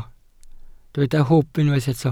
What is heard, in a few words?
"hwb", Welsh